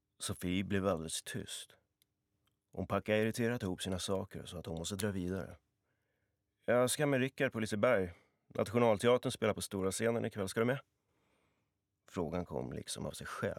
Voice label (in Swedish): story swe